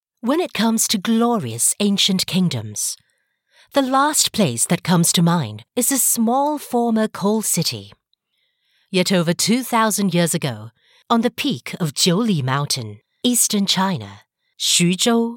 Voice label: singapore voice documentary

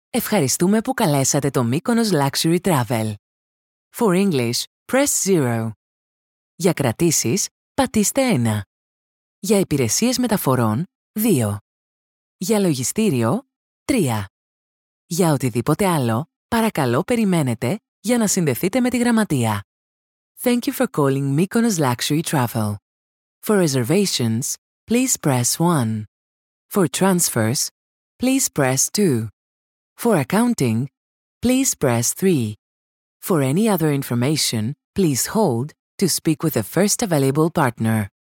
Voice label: greek female voice telephone

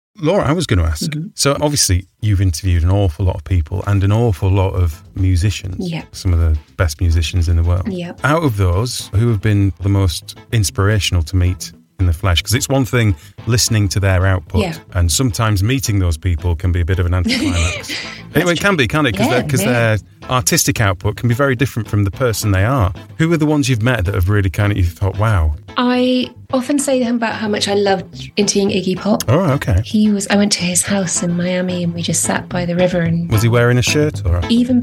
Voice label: podcast host presenter

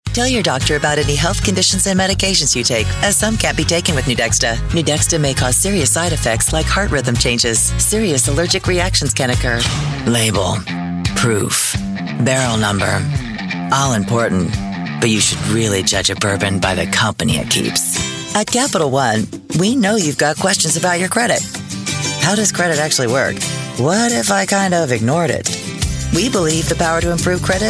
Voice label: american voiceover commercial

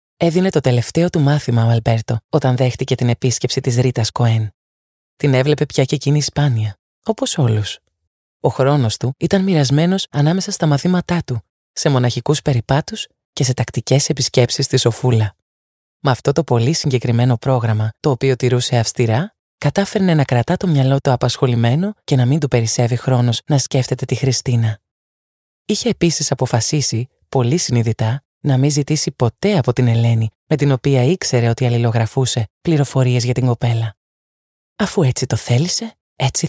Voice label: Greek female voice, audiobook

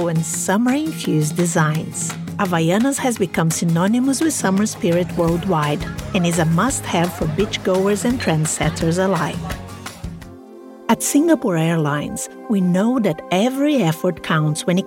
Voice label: brazilian female english corporate

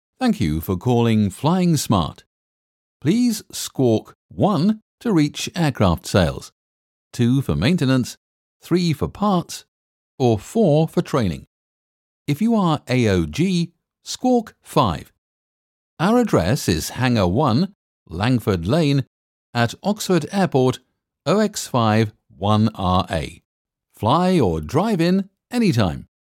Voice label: on-hold

